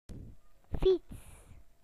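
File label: "bicycle", British English; PictureDictionary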